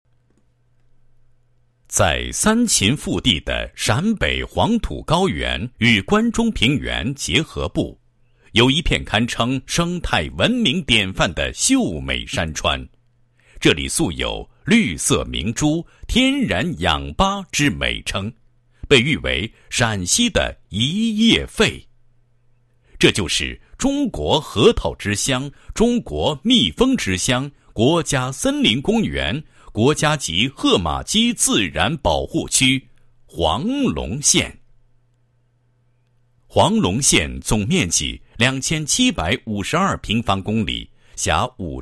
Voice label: 男 解说词, 配音; 森林防火永远在路上防火宣传

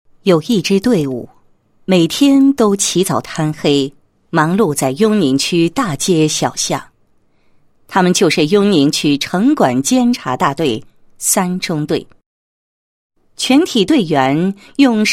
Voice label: 女 城管监察 形象宣传; 城管监察大队三中队

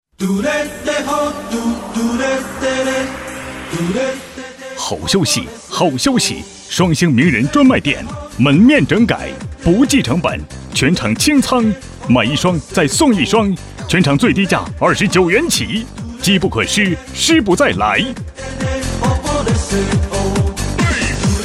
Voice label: 男 促销广告; 双星

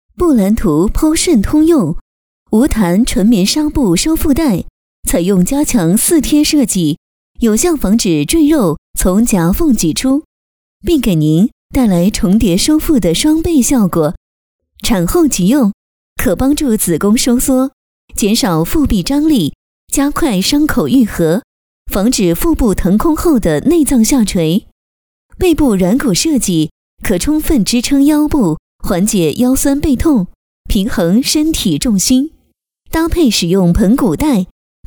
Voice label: 女 产品广告 收腹带; 方言

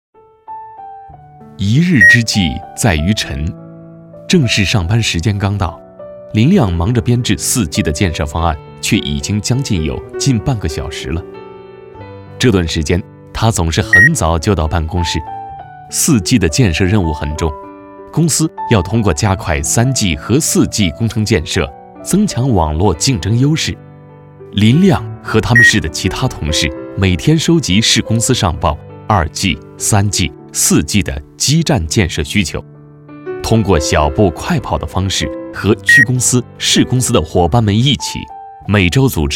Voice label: 男 活动宣传; 移动计划

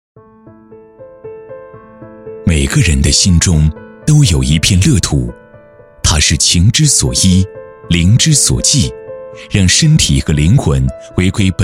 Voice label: 男 舒缓深情; 新碧桂园

